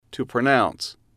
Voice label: to pronounce, English

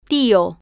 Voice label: "tio", spanish

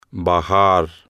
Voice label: "bajar", Spanish

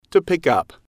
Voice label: to pick up, English

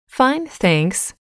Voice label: "fine Thanks", english

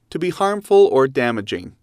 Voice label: to be harmful damaging, English

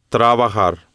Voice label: "trabajar", spanish

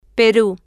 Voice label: "peru", spanish

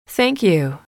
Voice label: "thank you", English

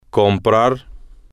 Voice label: "comprar", spanish

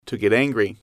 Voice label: "to Get Angry", English

